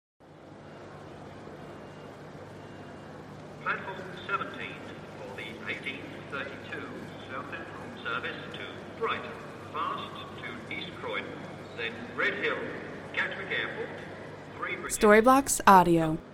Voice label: london vic train station announcements